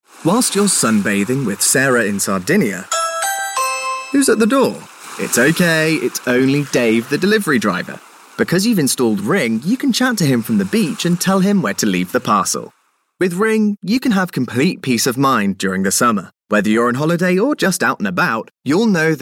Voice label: radio ad; ring doorbell